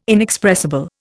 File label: "inexpressible", Indian